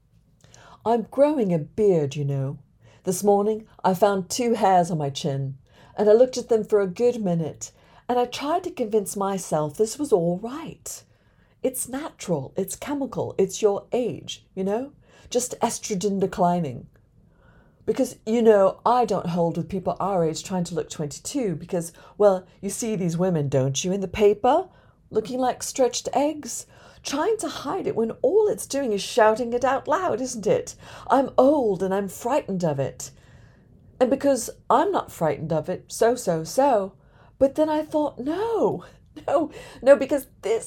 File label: English Accent